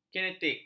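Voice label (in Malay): "kinetik", Malay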